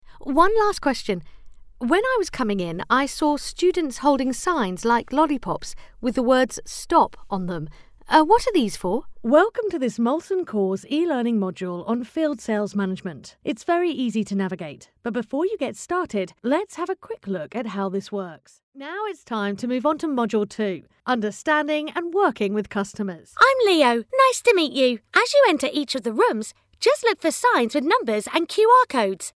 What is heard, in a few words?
english british e-learning